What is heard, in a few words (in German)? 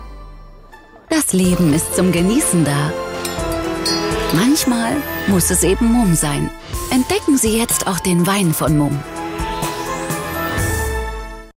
Werbung; MUMM